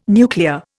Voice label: "nuclear", Indian